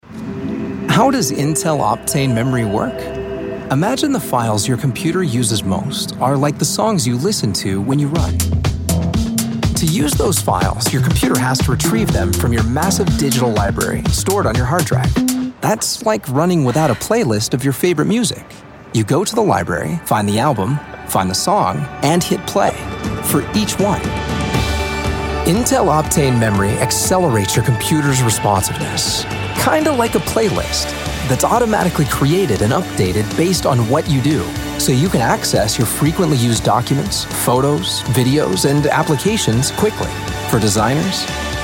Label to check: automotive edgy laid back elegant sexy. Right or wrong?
Wrong